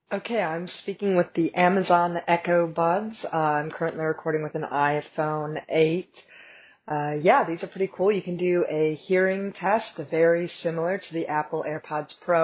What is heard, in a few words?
Amazon Echo Buds microphone